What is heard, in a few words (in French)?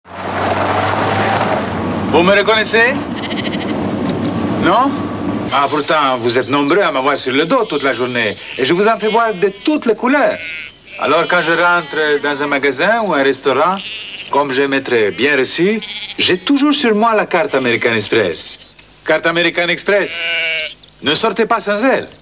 ital; Benetton